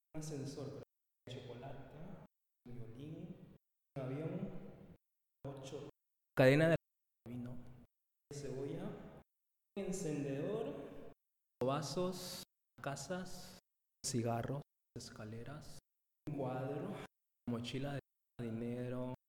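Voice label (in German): Zusammengefugte Worter Schnell Spanisch, male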